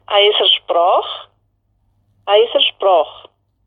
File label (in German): Mundart; tannheimertal